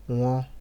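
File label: "wan", Nigerian Pidgin, male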